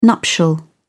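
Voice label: "nuptial", English, British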